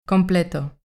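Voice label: "completo" Spanish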